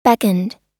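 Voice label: "beckoned", US English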